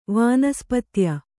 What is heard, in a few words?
"ವನಸ್ಪತ್ಯ", Kannada